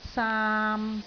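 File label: "saam3", Cantonese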